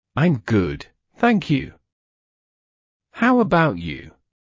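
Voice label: I'm good thank you. How about you, British English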